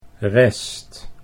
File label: "rest", Dutch